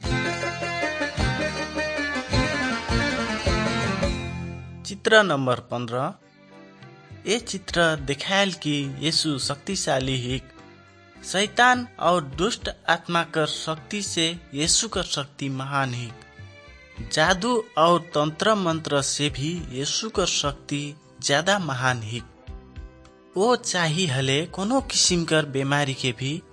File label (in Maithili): good news maithili, nt drama